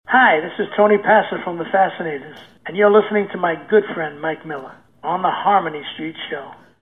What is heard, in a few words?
promo